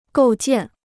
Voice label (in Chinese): "构建", Chinese